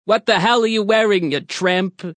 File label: What You Wear Tramp, Attitude, Male; VoiceBox